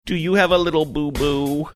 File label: saying do you have little booboo funny